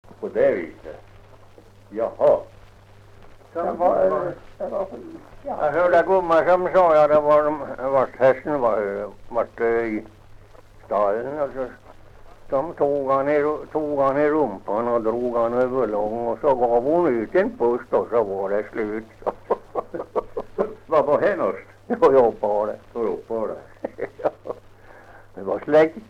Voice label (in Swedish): dialekter; Folkminnen